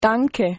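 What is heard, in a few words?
"thanks", German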